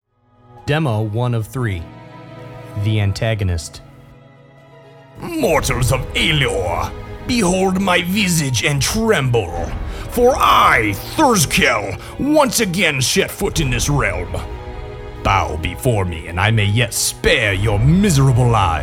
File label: voiceover